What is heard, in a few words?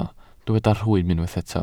"rhwym", Welsh